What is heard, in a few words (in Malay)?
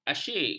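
"asyik", Malay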